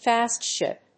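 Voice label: "Fast ship", English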